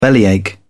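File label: "bellyache", British English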